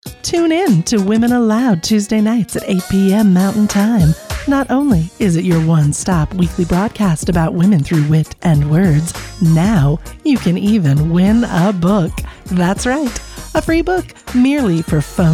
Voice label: Promo; Freebook